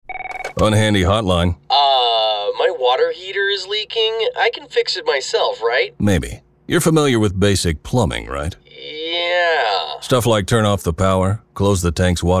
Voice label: KEG POUR RADIO